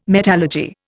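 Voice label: "metallurgy", English, Indian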